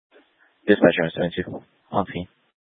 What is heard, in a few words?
Fire Dispatch, scanner